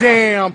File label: "damn", expletives